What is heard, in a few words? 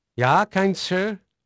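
Dutch male, voice; Pirates Of The Caribbean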